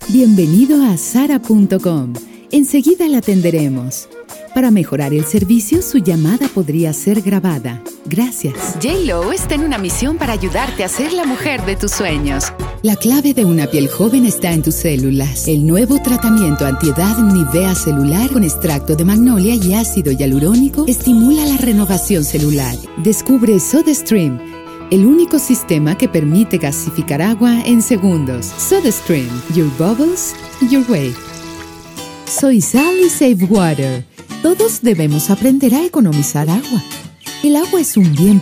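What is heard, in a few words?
Spanish MX F, female, Mexican Spanish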